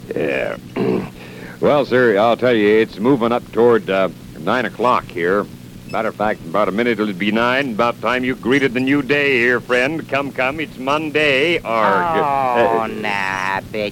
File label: Station Identification and a Chevy Commercial